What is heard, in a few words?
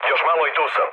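Serbian near waypoint mood low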